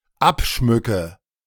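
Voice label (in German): "abschmücke", German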